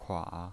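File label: "kua", Mandarin Chinese, third tone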